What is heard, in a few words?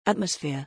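"atmosphere", English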